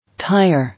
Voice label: "Tire", English